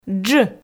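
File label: "дж", Komi, female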